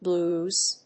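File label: "blues", English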